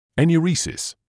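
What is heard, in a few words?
"enuresis", British English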